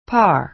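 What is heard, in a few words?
"par", American English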